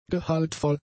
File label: "gehaltvoll", German; adjectives